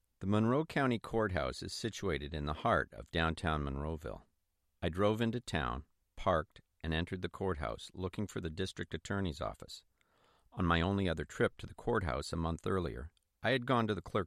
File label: Read, Voiceover; Just Mercy